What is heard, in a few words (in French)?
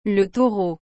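"le Taureau", French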